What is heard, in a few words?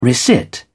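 "resit", British English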